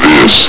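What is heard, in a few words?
"this", male; hl2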